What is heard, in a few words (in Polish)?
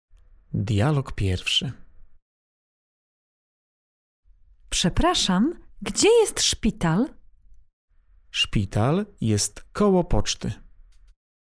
dialog